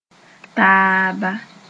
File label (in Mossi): "taaba", Moore; each other